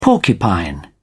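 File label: "porcupine", British English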